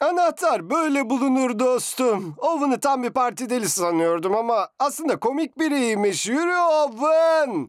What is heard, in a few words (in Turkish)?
cocuk ornek